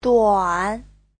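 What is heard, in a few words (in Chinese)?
"duan3" Chinese; (tính từ) ngắn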